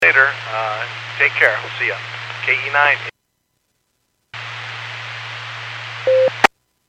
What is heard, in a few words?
Skywarn 146.67; Stevens Point